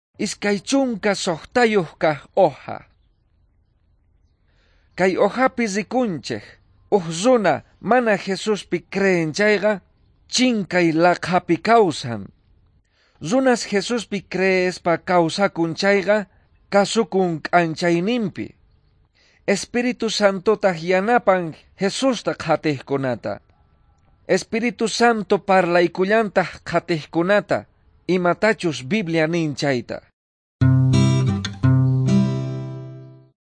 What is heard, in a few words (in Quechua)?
quechua bolivian cochabamba; good news